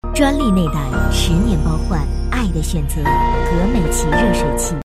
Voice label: 女 广告 亲和温馨; 热水器; 格美淇

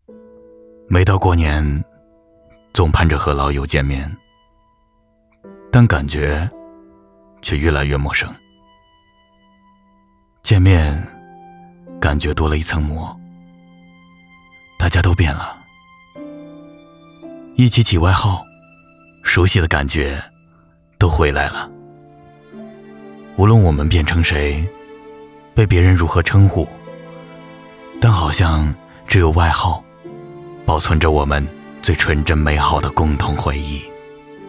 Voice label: 男 旁白 外号旁白

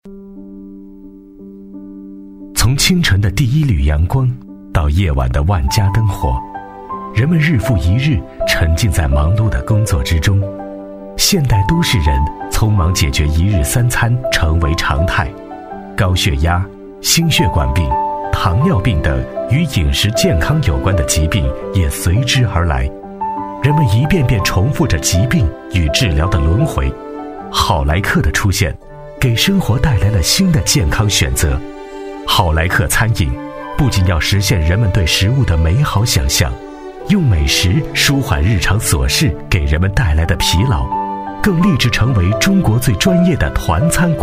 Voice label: 男 专题 温暖 讲述; 好来客

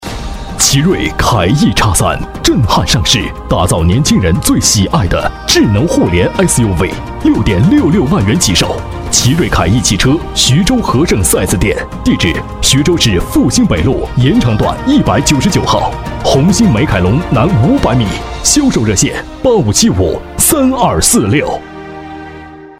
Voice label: 男, 广告; 奇瑞凯翼X3

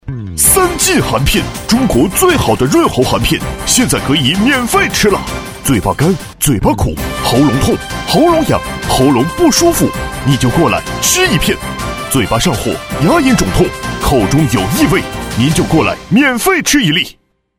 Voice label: 男, 广告, 电购; 三劲含片

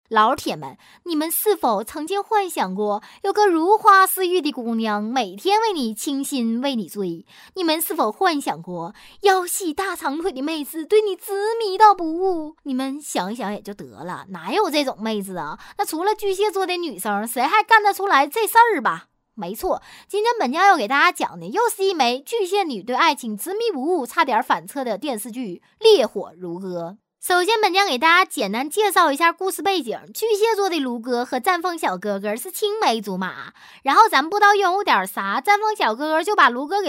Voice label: 女 方言 东北话解说娱乐搞笑; 星啪酱

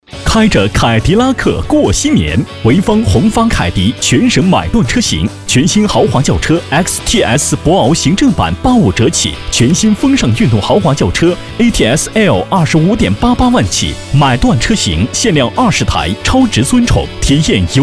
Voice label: 男 广告 电台广告

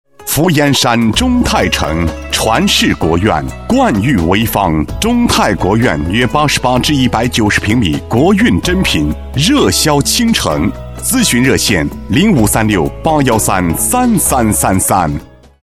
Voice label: 男 广告; 中泰城房产

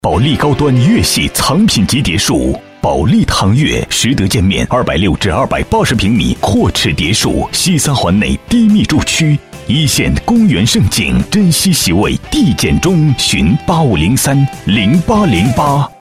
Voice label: 男 广告; 保利地产

